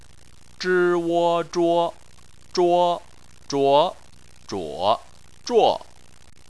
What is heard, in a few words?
"zhuo", Chinese